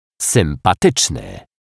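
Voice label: "sympatyczny", Polish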